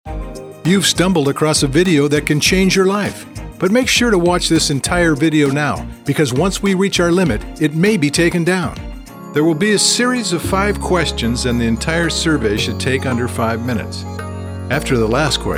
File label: Commercial Male